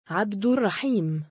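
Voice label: "3abdurra7iim" male, Arabic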